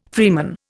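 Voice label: "freeman", Indian English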